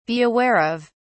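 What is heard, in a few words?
"be aware of", English, British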